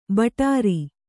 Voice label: "ಭಟಾರ", Kannada

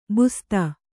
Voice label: "ಬಸ್ತಿ", Kannada

